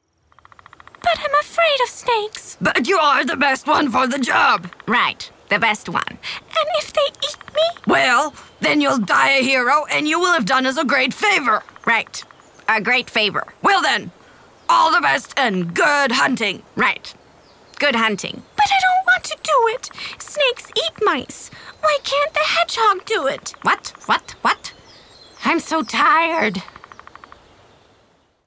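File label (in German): US English, Schauspiel Variabel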